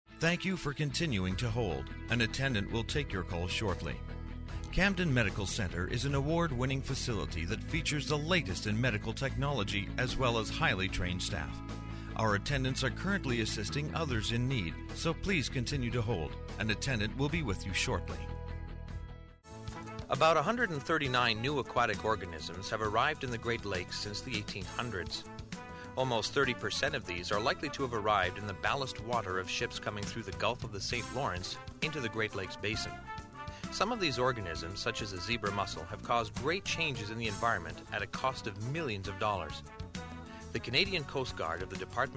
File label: Corporate; business audio